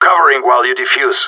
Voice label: hurry bomb, radio; GSG9

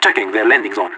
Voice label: checking ct spawn, radio; LEET

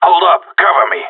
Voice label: cover me, radio; GSG9

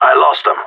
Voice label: enemy lost, radio; GSG9

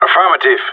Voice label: affirmative, radio; GSG9

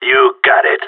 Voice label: good shooting, radio; GSG9

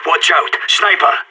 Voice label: sniper spotted, radio; SAS

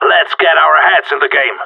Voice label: peptalk, radio; GSG9

